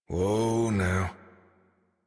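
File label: horse stop walk adult, English; Warframe; Duviri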